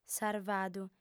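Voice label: "sarvadu", female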